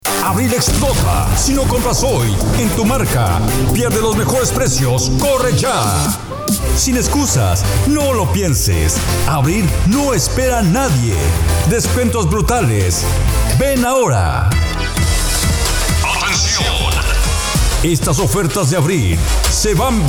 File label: Male